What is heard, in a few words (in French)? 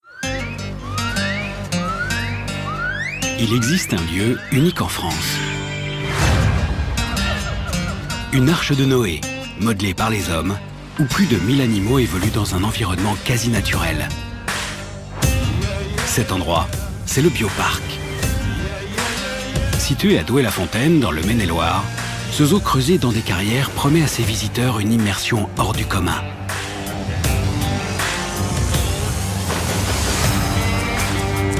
voix narrative; RMC Decouverte; Le Bioparc